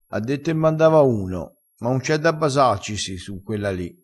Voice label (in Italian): "basaccisi", vocabolario dialettale crespinese